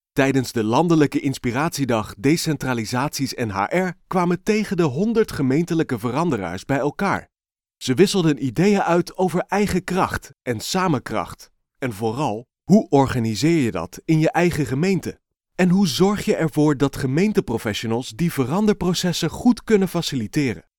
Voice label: voiceover gemeenten, Voice Actor; Businesses: Landelijke Inspiratiedag Gemeenten